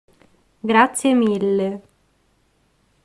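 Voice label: pronunciation "grazie mille", Italian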